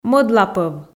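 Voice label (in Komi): "мӧдлапӧв", Komi, female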